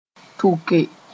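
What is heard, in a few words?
"tʋke", Mooré; carry on the head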